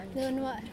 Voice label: woman in cafe